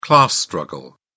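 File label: "class struggle", English, uk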